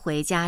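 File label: "hui2 jia1", Chinese, female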